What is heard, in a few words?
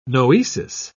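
"noesis", British English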